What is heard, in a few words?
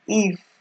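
"if", Nigerian Pidgin, female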